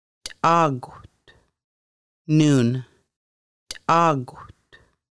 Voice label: noon, conversational Lushootseed; Conversational Lushootseed: Lessons 1-5

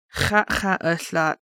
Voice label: "Sunday", Lushootseed

